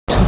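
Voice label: "yǔ xié", Mandarin Chinese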